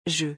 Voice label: "jeu", French